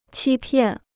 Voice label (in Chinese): "欺骗", Chinese